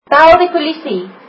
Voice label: Call the police, afrikaans